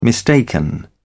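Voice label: "mistaken", British English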